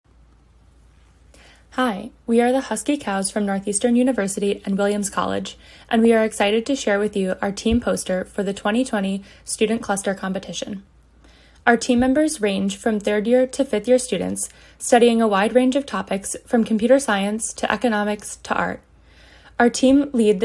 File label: Voiceover; ClusterComp 2020